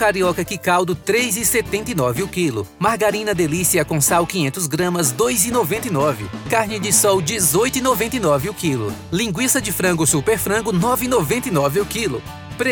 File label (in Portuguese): spot varejo supermercado